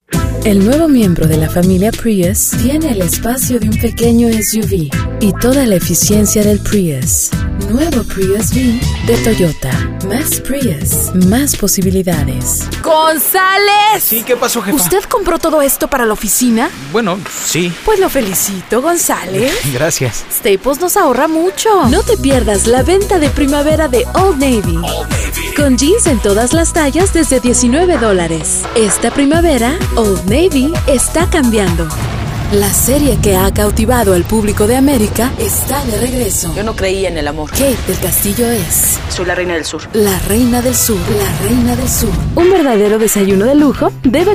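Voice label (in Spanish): Voiceovers, comerciales de radio; Servicio de voiceover y producción de comerciales de radio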